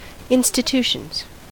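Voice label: "institutions", US English; pronunciations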